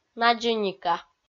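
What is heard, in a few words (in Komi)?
"надзӧника" Komi, female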